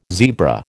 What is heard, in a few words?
"zebra", American